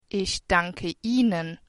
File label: thanks, german